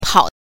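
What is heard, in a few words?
"pao", Mandarin Chinese, neutral tone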